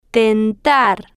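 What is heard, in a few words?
"tentar", slow, spanish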